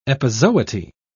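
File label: "epizooty", British English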